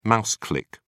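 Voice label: "mouse click", British English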